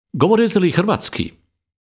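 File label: Serbian, male, Sprechen Sie Kroatisch?; Govorite li hrvatski?; Serbisch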